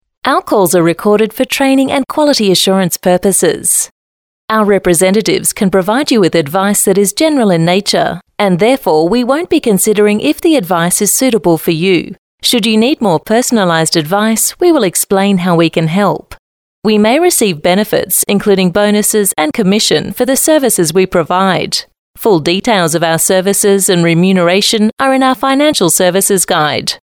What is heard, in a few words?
female talent corporate